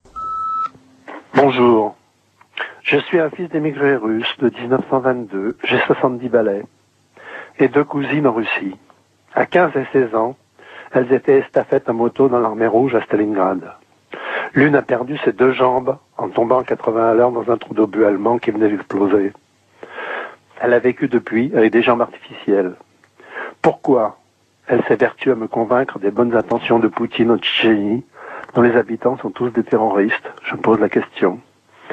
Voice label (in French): Tchetchenie repondeur